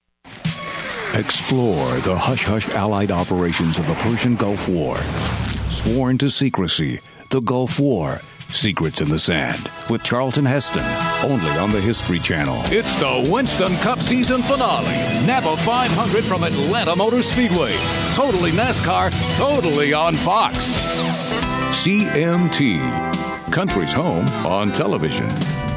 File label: NATNL PROMO